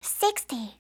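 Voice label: "sixty", English; eng suuji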